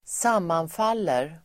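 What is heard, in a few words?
"sammanfaller", Swedish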